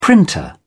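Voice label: "printer", British English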